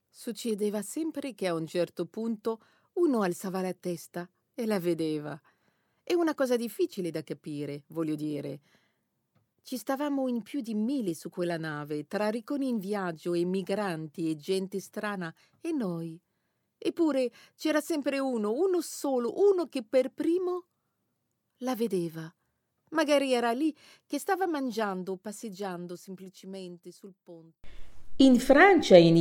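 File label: Italian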